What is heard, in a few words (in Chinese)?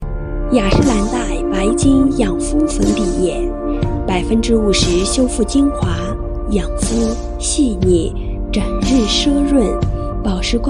商配